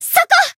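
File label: Voice attack Japanese; saki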